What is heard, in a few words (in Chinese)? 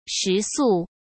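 "食宿", Mandarin Chinese; hsk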